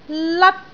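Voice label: "lat1", Cantonese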